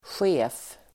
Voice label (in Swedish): "chef", swedish